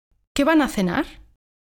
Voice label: conversation